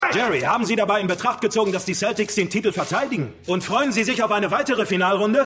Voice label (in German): Sportreporter; Winning Time